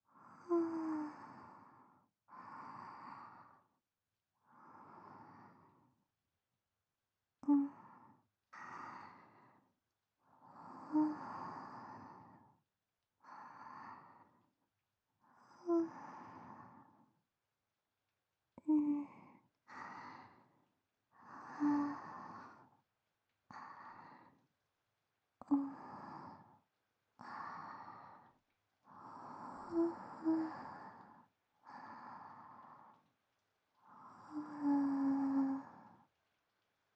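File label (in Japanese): お布団の中でキスとすりすりささやき, ASMR; お布団管の中で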